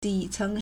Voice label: "di3 ceng2", Chinese